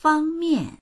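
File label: "fang1mian4", Mandarin Chinese; 方面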